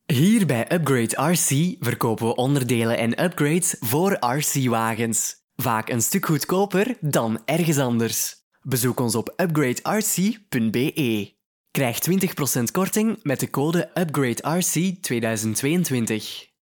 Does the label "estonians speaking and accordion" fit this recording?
No